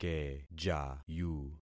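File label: "kejayu", concatenated syllables; Affixing experiment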